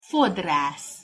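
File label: "fodrasz", Hungarian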